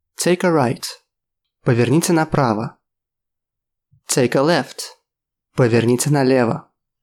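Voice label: Russian Take a right take a left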